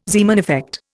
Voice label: "Zeeman effect", Indian